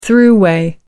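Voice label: "throughway", British English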